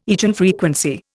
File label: "eigenfrequency", Indian